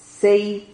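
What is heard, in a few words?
"cei", Spanish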